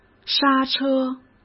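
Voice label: "刹车" Mandarin Chinese; chinese-flashcards; hsk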